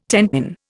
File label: "tenpin", Indian